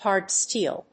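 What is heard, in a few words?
"hard-steel", English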